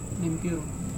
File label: "nempiurung", grandchild, Serewen